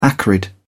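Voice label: "acrid", British English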